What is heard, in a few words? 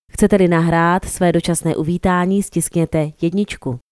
czech Record Temporary Greeting